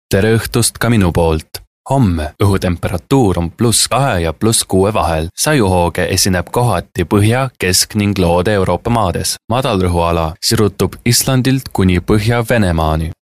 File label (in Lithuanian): (VYR) Estų balsas